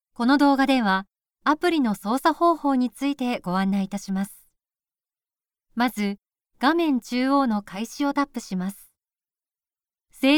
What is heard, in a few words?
female